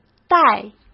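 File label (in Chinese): "袋", Mandarin Chinese; hsk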